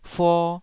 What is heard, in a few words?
"fuo2", Taiwanese